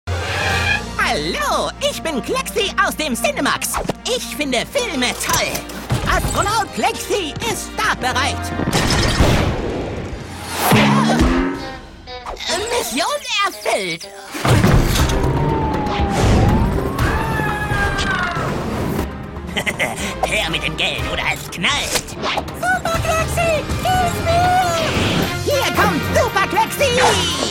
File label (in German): Werbung Kindlich